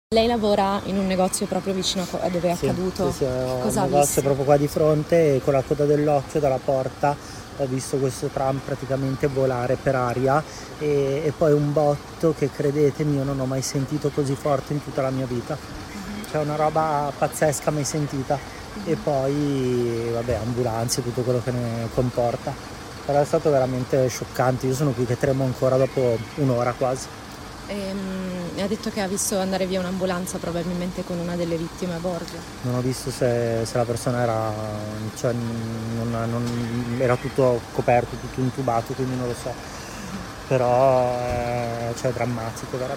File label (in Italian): TESTIMONIANZA; TRAM; deraglia un tram a milano 1 morto e 39 feriti